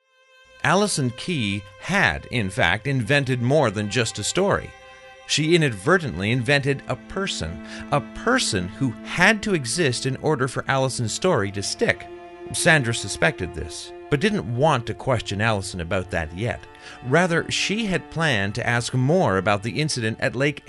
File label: Book On Tape Read, audio narration